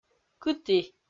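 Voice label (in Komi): "кыті", Komi, female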